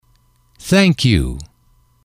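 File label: "thank you", English, male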